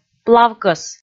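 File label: "плавкӧс", Komi, female; audio dict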